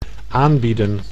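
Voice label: "aanbieden", Dutch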